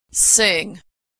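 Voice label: "sing", American English; verb; Consonant Sound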